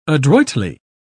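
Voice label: "adroitly", British English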